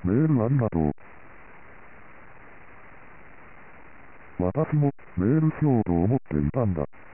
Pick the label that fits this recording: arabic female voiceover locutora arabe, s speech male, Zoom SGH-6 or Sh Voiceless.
s speech male